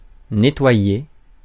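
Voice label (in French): "nettoyer" French; Mots francais phonemes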